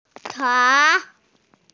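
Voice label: "tang2", Mandarin Chinese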